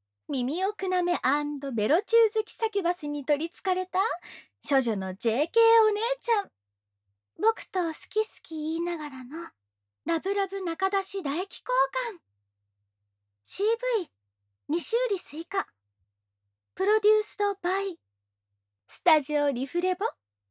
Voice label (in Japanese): タイトルコール, ASMR